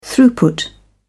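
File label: "throughput", British English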